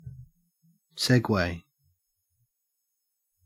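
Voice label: "segue", Australian English; pronunciations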